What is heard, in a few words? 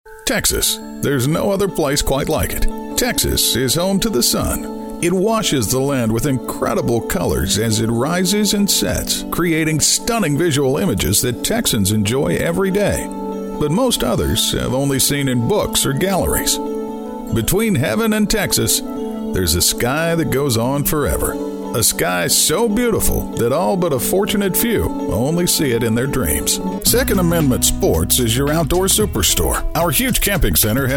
Southern Charm